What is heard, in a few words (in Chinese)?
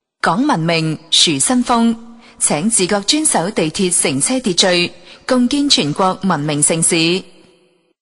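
女粤（地铁报站）; 深圳地铁报站; 知性; 粤语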